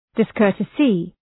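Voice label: "discourtesy", English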